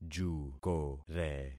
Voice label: "jukore", concat syllables; Affixing experiment